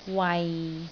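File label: "wai6", Cantonese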